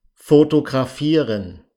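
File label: "fotografieren", German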